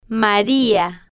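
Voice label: "maria", Spanish, female, slow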